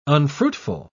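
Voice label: "unfruitful", English, uk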